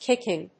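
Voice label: "kick in", English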